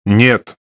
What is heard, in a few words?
RU Speech Quick Commands No Way; World Of Warships; USSR Ovechkin